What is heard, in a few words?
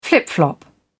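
"flip-flop", British English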